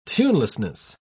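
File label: "tunelessness", British English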